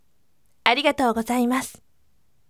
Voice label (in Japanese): voice "arigatou gozaimasu" sikkarime